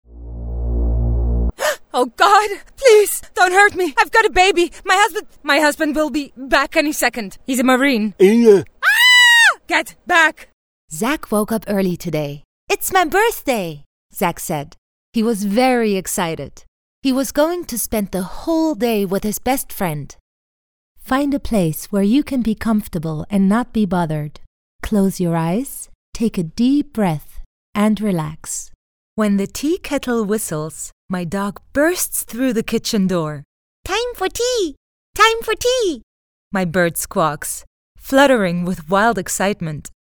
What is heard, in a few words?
EN character